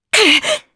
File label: Damage, jp; Naila; kingsraid